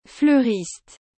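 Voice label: "fleuriste", French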